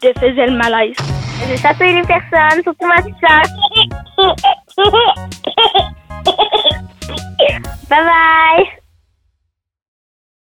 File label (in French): repondeur enfants quand tu veux faire rire